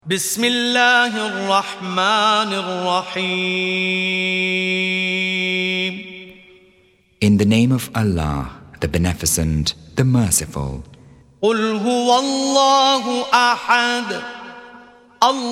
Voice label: quran english; surah112